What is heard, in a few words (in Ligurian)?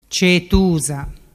"ceetusa" voce genovese